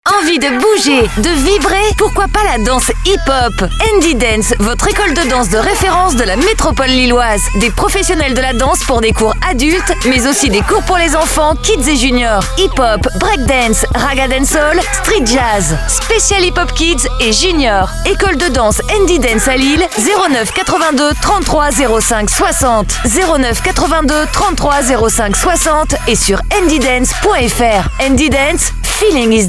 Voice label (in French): SPOT; SKYROCK